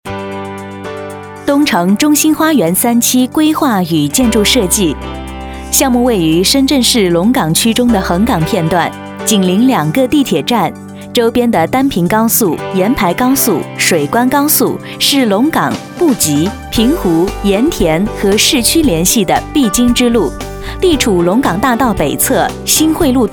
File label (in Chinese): 女 多媒体 建筑类 稳重 亲切